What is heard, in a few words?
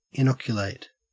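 "inoculate", Australian English; pronunciations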